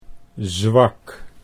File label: "zwak", Dutch